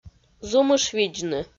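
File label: "зумышвидзны", Komi-Zyrian, female; audio dict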